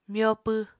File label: "myopw", Apatani